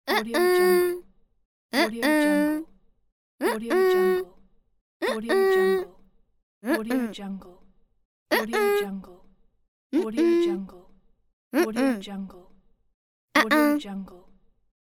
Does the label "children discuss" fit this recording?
No